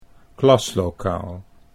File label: "klaslokaal", Dutch